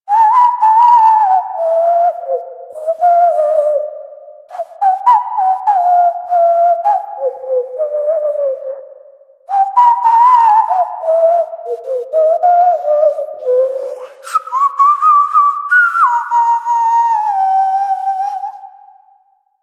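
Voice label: Citizen Male, English; Warframe; Mall; Citizens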